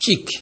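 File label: "chick", Nigerian Pidgin, male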